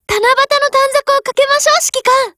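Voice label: Japanese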